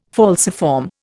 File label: "falciform", Indian